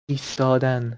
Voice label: "istadan", Persian